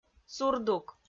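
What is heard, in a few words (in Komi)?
"сур дук", Komi, female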